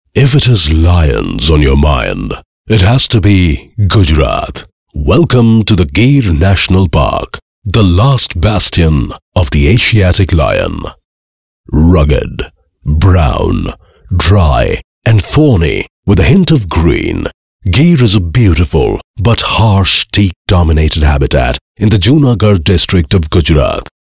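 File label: English, male voice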